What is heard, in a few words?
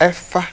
labiodental voiceless, ewe